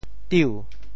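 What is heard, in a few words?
"tiu2", Teochew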